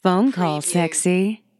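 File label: Female Phone Call Sexy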